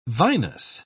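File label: "vinous", English, British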